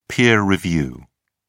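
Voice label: "peer review", English, British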